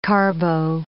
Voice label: "carbo", English